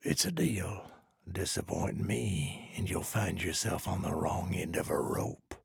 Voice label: trader male dislike quest accepted